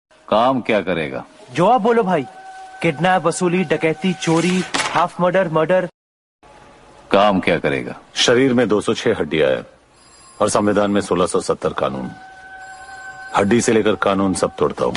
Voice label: Funny Dialogue Ringtone